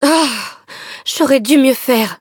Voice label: defeat, fr; cookie run